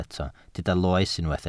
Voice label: "loes", Welsh